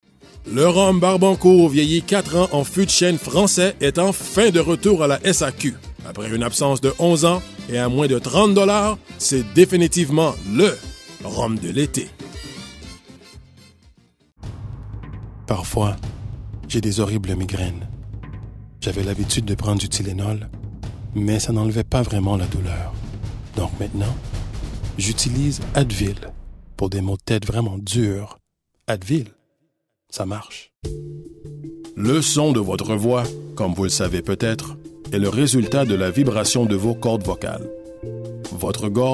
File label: FRENCH